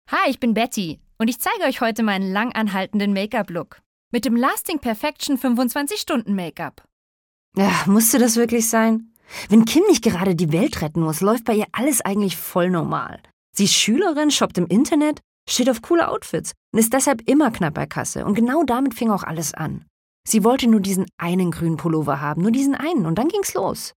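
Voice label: German, Character; GoLocalise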